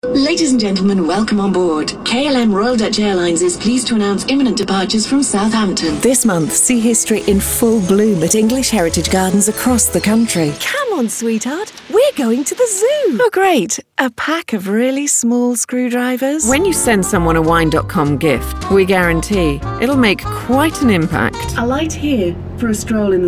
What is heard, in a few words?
commercial reel